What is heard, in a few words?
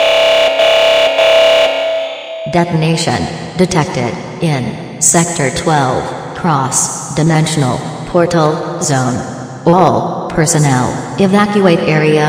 announcement; sven; soiz